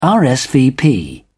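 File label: "rsvp", British English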